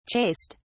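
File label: "chased", British English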